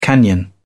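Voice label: "canyon", British English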